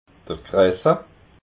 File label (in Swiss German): "kressa", alsace